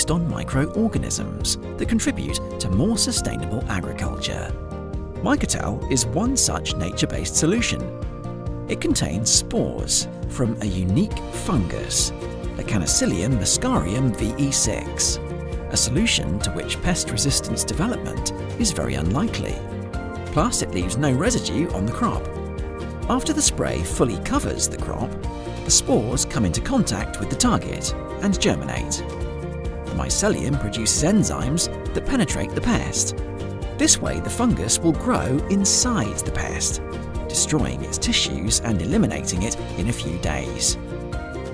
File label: explainer video voice over young UK male voice over artist; Koppert Mycotal